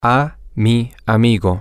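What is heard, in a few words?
Spanish, to my friend masculine, male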